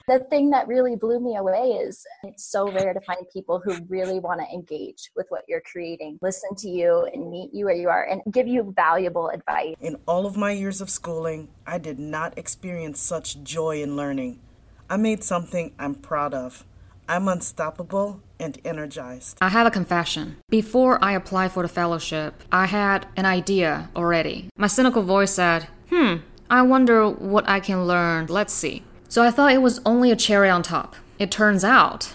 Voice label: Testimonial